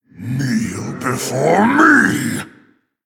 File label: Male Orc Attack